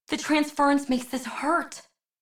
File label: Low Health Operator Voice; Operator Mission